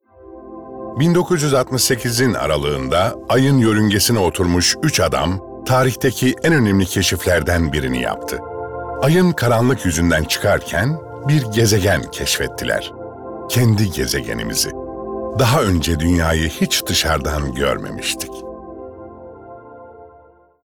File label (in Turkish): Belgesel